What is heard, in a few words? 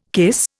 "cuisse", Indian